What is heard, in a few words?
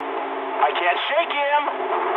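English aircraft damaged mood med; War Thunder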